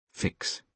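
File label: "fix", British English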